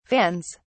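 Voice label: "vans", British English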